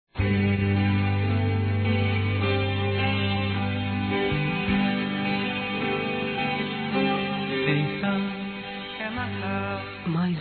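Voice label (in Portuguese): ANO NOVO E NATAL NEUTRO Masculino; Telemensagens; Misto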